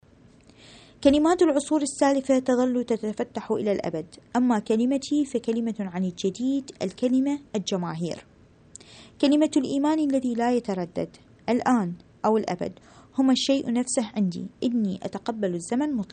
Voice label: arabic